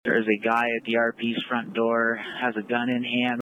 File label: dispatch tape